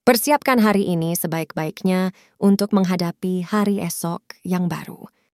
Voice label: Indonesian; "Persiapkan hari ini sebaik-baiknya untuk menghadapi hari ésok yang baru."; Text To Voice; DeepVoice AI

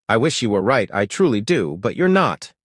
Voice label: he said, assertively; Text To Voice; DeepVoice AI

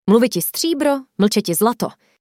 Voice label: Czech; "Mluviti stříbro, mlčeti zlato."; Text To Voice; DeepVoice AI

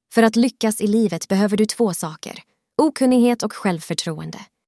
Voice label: Swedish; "För att lyckas i livet behöver du två saker: okunnighet och självförtroende."; Text To Voice; DeepVoice AI

